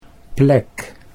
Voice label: "plek", Dutch